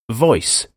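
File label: "voice", English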